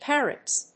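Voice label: "parrots", English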